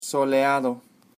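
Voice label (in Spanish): "Soleado", Spanish; sunny; Weather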